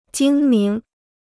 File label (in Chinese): "精明", Chinese